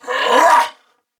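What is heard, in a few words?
Throw Grenade Male, en; Battle; Corpus Venus; Warframe; CorpusVenusMaleB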